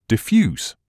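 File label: "diffuse", British English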